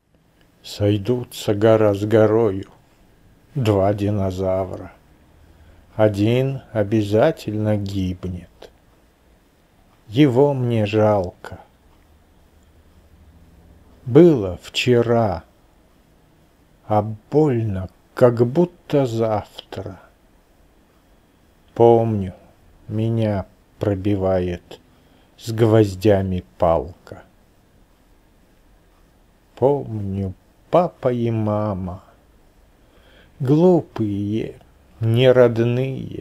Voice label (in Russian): звучащие стихи; Конфетам "Моцарт" посвящается ("Фольгой хрустящий...")